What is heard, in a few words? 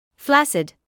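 "flaccid", British English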